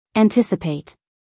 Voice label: "anticipate", US, female